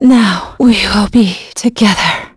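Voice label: Vox Dead, English; kingsraid; voices heroes en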